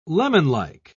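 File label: "lemonlike", British English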